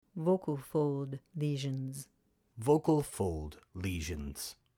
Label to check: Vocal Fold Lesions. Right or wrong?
Right